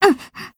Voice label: Voice JP; Tololo